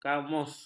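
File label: "kamus", Malay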